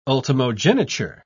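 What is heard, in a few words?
"ultimogeniture", British English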